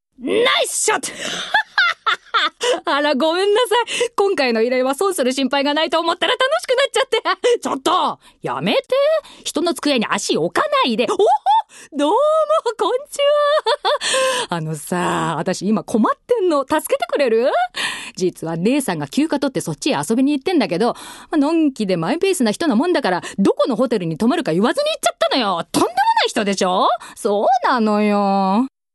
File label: female voice